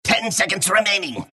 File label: announcer count; dlc clockwerk